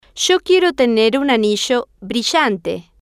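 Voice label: yo Quiero Tener Un Anillo Brillante, Spanish, female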